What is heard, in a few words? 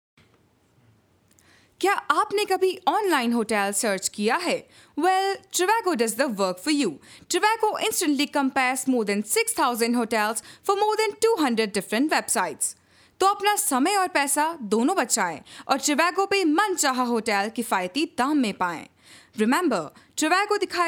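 Hindi, Commercial; GoLocalise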